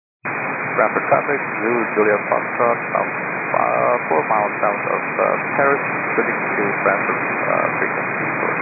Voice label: 122.925 MHz; scanner; radio